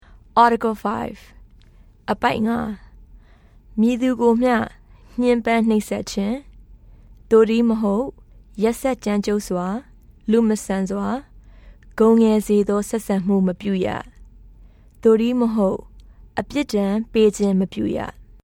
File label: Burmese